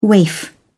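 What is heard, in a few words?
"waif", British English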